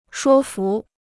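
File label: "说服", Chinese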